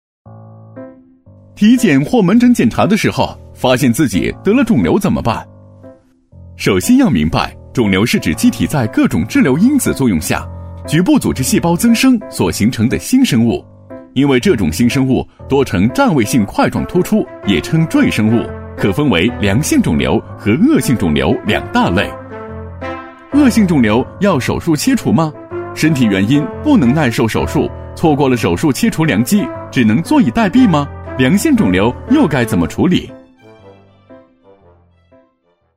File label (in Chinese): 男 MG动画 明亮活泼 青年, 轻松活泼; 飞碟说